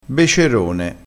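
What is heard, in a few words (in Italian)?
"becerone", dialettale crespinese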